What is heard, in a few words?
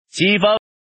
man